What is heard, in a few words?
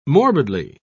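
"morbidly", British English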